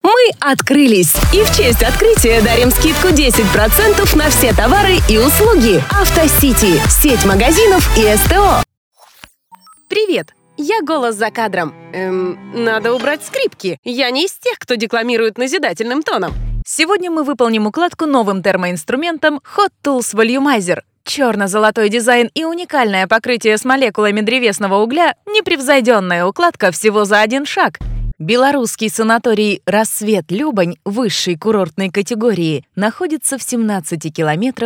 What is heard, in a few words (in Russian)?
Дикторы, Озвучка